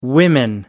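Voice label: "women", British English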